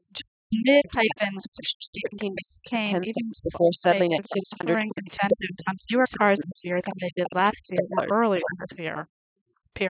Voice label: female female